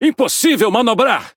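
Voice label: wheel damaged, Brazilian Portuguese; speech; defective modules; World Of Warships; PT BR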